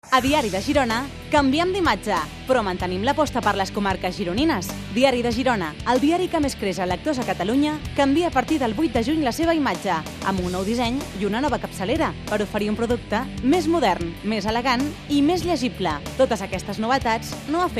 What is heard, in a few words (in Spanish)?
catalan